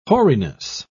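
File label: "hoariness", British English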